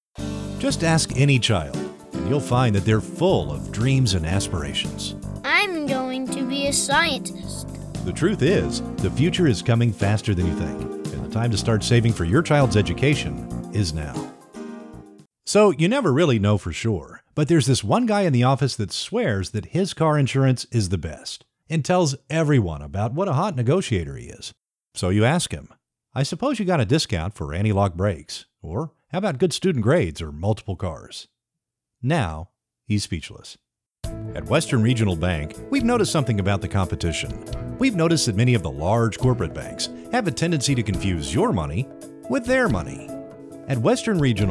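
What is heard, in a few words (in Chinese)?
外籍男 Commercial 商业广告, 美国英语配音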